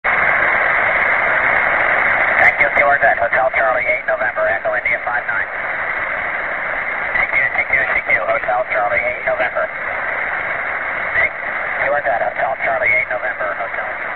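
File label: single sideband no takers, amateur radio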